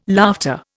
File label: "laughter", Indian